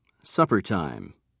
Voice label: "suppertime", en uk

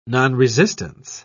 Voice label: "nonresistance", en uk

